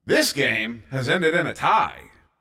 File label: Tie, Announcer